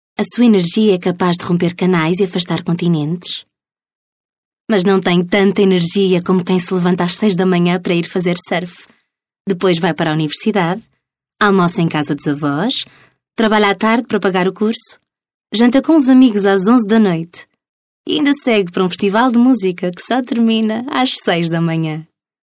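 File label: portuguese female narration; voice demos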